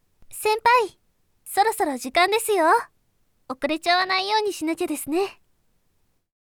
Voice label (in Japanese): お知らせボイス